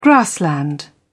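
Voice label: "grassland", British English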